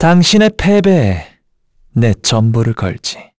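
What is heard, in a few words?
Vox Skill, Korean; Esker; kingsraid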